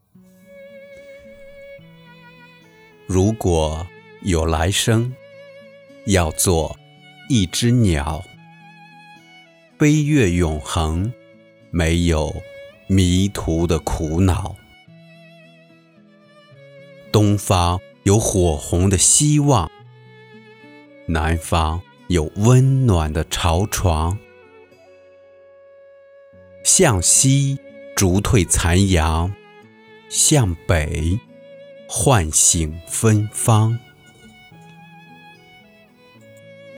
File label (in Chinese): 情感类试音文稿